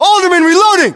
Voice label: US inform reloading, battlechatter, english

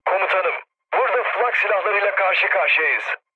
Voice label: damaged aa, mood low, speech tur; War Thunder

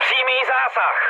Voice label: bomb success, speech cze, mood med; War Thunder

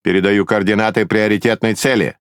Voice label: Ru Speech Priority Target; World Of Warships

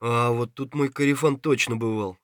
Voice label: scav mutter, voice; Escape from Tarkov; scav3

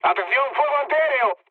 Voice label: damaged aa, mood med, speech; War Thunder

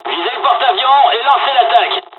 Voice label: dialog, dialogs french; War Thunder; midway

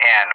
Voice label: "and", ENG; JTAC; DCS

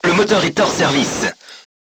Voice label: voice message engine destroyed, crew tanks french

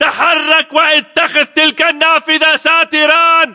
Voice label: order cover window, battle chatter, english

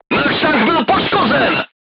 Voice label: voice message player tank hit, crew tanks czech

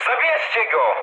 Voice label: aircraft damaged mood med, speech pol; War Thunder

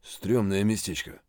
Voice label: mutter, voice; Escape from Tarkov

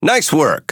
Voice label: Speech Quick Commands Good Game, EN; World Of Warships

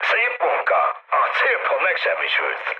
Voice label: bomb success, mood high, speech hun; War Thunder

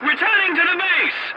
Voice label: voice message return to base, english; War Thunder

